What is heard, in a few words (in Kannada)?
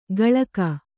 "ಗಳಕ", kannada